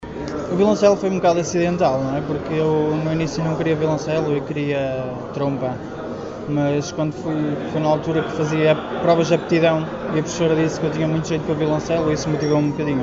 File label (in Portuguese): entrevista violoncelista